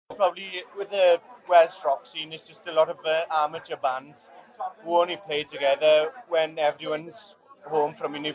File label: welsh man 23; Europe